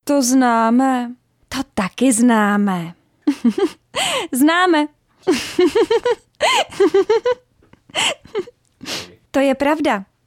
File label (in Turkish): Cekce Kadin, seslendirme; Kadın Ses